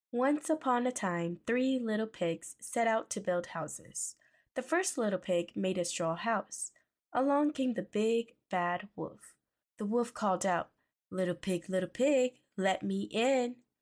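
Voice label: female voice, English accent, Hmong; GoLocalise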